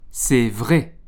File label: "c'est vrai", French